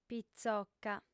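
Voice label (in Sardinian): "pitzoca", Sardinian, female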